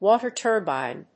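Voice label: "WATER TURBINE", English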